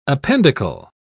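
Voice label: "appendicle", British English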